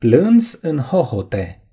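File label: "sob", Romanian; gcompris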